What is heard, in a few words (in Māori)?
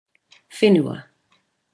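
"whenua", Māori word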